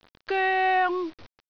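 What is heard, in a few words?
"goeng1", Cantonese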